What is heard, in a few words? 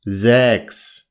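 "sechs", German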